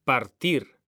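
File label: "partir", Spanish; mekanta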